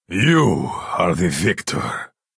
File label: male concede